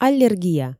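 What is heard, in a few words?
"аллергия", Komi, female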